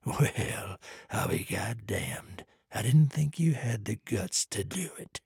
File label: trader male neutral quest accepted